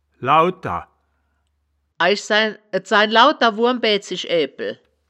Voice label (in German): "lauter", wittlich